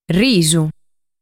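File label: "Risu", Sardinian word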